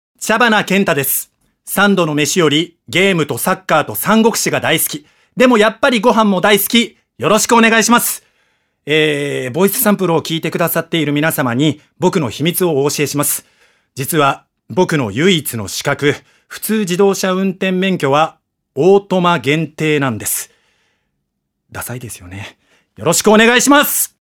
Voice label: freetalk, male voice

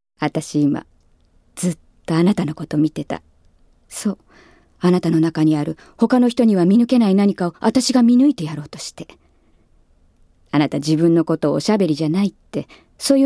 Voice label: female voice; セリフ

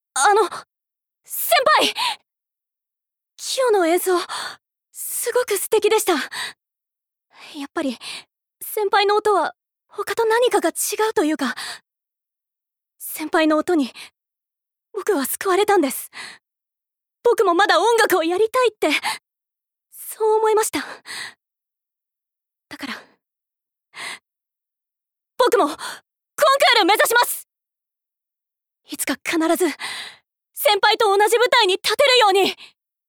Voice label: female voice; セリフ

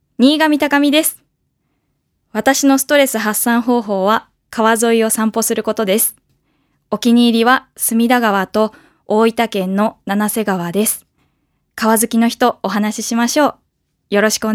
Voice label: freetalk, female voice

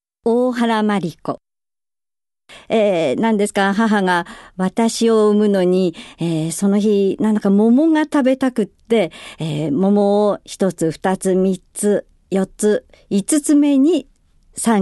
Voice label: female voice freetalk

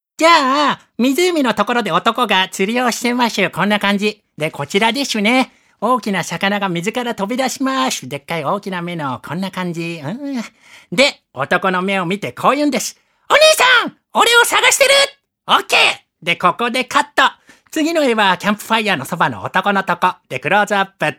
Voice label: male voice; セリフ

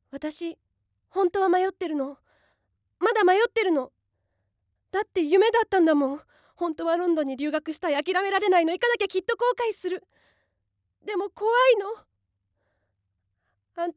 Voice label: female voice

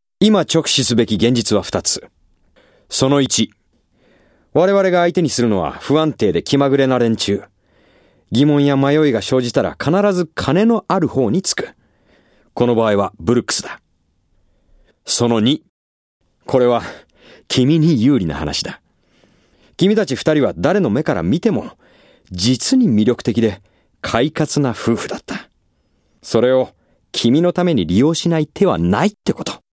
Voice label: male voice

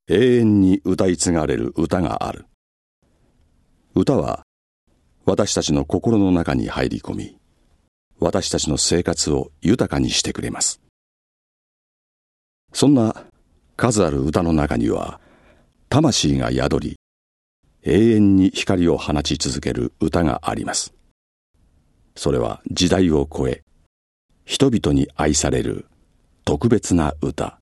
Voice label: male voice; ナレーション

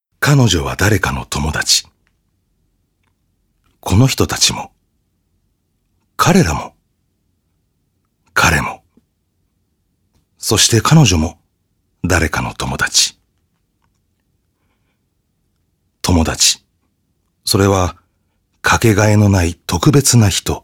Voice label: male voice; ナレーション

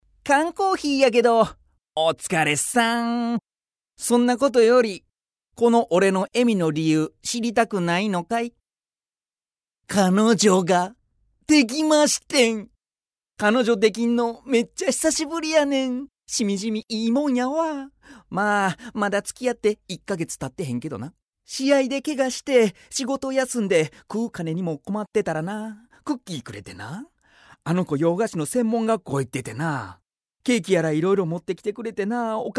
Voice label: male voice; セリフ

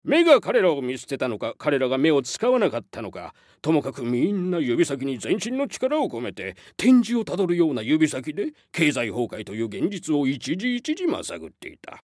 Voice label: male voice; セリフ

